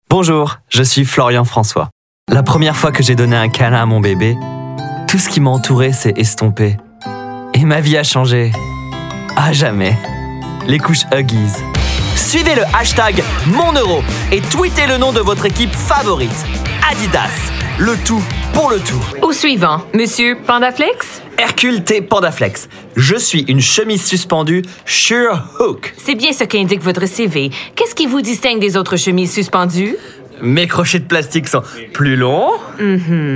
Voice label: French Commercial